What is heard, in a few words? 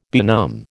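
"benumb", American English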